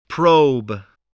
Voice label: "probe", voice, spelling